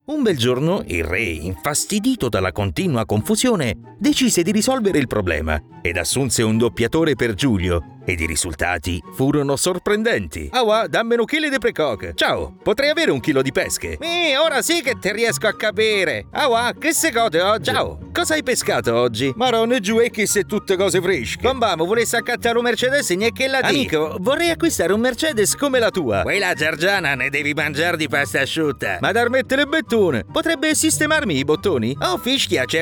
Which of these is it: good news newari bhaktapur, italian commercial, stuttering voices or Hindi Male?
italian commercial